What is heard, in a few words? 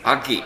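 "age", Minamiyamagata dialect Japanese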